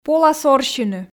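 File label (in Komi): пола сорсьыны, Komi, female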